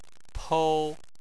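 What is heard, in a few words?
"pou", Chinese, tone 1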